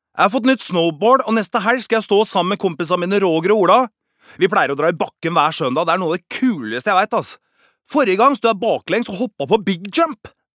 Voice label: no dub boy